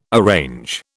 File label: "arrange", British